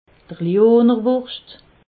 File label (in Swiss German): "lionerwurscht", alsace